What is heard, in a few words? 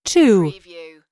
US female phone prompt; lynne publishing